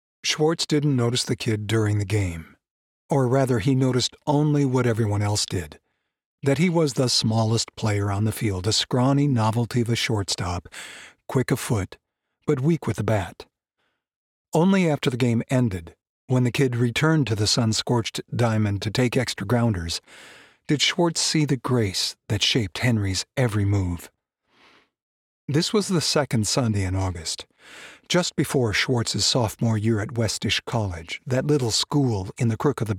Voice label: Narrative; Demo Reel